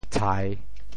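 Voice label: "tshai3", Teochew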